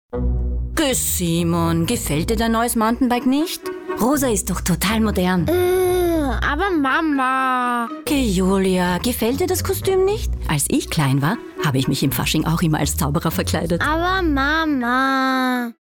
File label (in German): Frau